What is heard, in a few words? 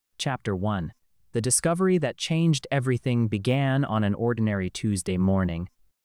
narrator; expressive; voice-mcp